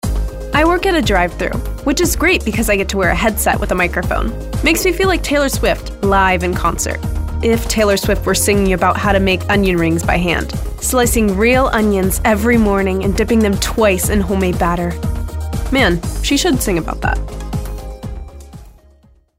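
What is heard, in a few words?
Drive Thru, voice-over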